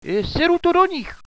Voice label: returning fire, radio bot